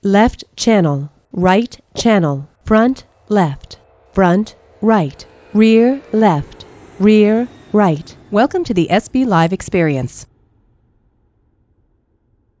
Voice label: female plane noise, Original Female Voice, Plane Noise 2 - 16 kHz Sampling Rate; after compression and decompression by the VLC HQ 16 codec at 32000 bps